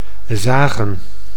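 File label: "zagen", Dutch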